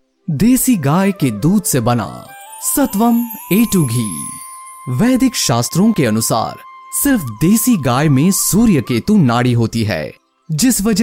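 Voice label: Hindi Commercial; Sattvam Ghee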